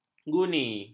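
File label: "guni", Malay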